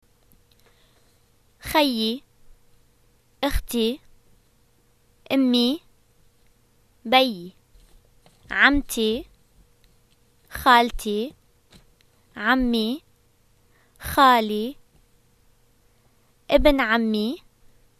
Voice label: family, arabic levantine

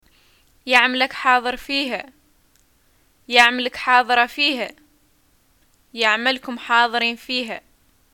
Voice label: greeting new thing, arabic

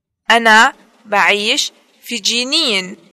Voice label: introductions i live in, arabic levantine

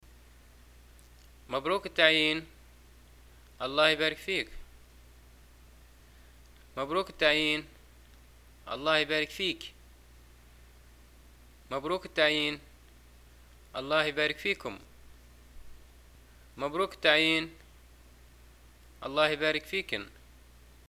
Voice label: greeting job, arabic, ly

